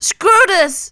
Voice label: Panic; zps; Humans; HumanLea